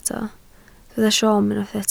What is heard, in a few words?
"siom", Welsh